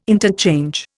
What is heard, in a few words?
"interchange", Indian